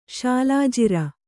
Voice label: "ಶಾಲಾಜಿರ", Kannada

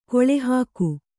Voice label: "ಗಾಳಿಹಾಕು", Kannada

